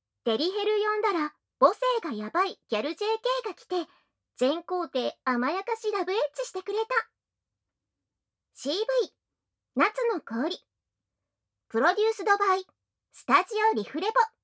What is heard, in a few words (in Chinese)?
標題朗讀